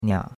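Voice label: "nia3", Chinese; Cours-de-Chinois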